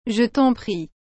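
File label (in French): "Je t'en prie", French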